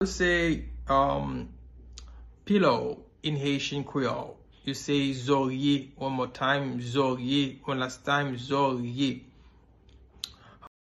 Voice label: Pillow in Haitian Creole Zorye pronunciation

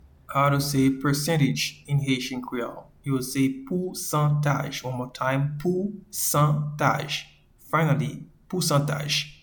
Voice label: Percentage in Haitian Creole Pousantaj

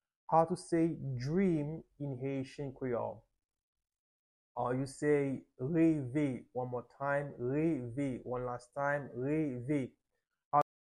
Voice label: Dream in Haitian Creole reve with pronunciation

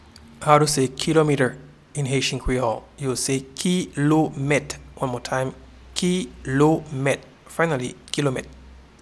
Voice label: Kilometer in Haitian Creole Kilomet

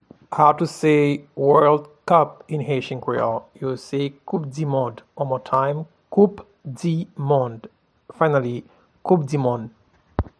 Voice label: World cup in Haitian Creole, Koupdimond

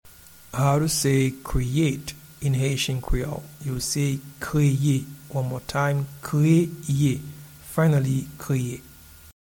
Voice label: Create in Haitian Creole Kreye

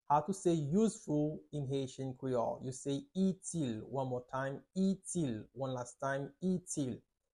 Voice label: Useful in Haitian Creole Itil pronunciation

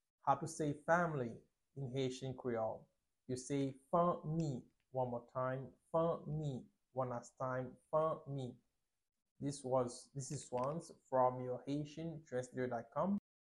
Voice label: Family in haitian creole Fanmi pronunciation

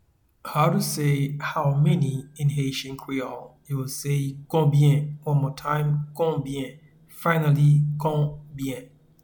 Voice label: How many in Haitian Creole Konbyen

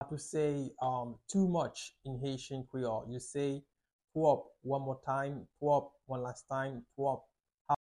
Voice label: Too Much in Haitian Creole Twop pronunciation

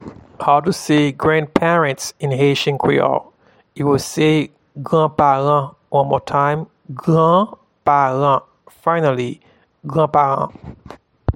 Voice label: Grandparents in Haitian Creole, Granparan

